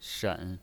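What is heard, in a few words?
"shen", Mandarin Chinese pinyin, third tone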